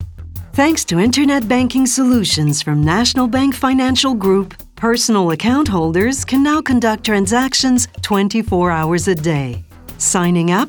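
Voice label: Canadian English, female, corporate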